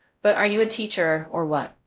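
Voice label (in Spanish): Voice Acting, es, female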